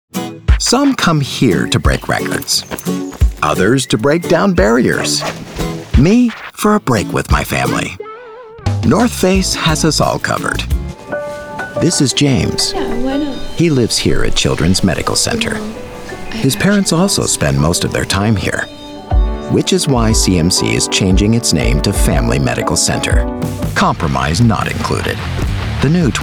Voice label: Commercial; voice over